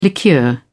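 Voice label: "liqueur", British English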